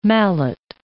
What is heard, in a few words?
"mallet", English